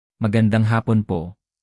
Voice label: "magandang hapon", Tagalog

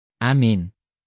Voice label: "amin", tagalog pronouns

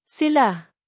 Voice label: "sila", tagalog pronouns

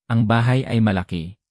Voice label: "ang", Tagalog

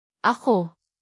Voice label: "ang", tagalog pronouns

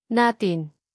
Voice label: "natin", tagalog pronouns

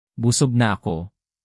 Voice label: "busog na ako", Tagalog; basic tagalog for travel

